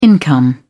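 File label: "income", British English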